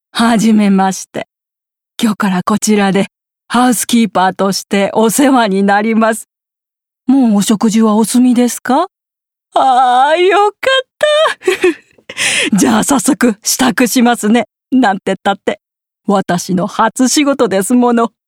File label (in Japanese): 年配